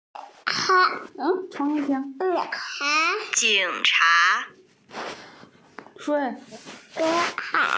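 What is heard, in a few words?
"jing cha" Chinese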